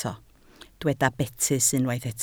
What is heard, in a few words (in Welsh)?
"betys", Welsh